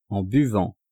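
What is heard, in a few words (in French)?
"en buvant", French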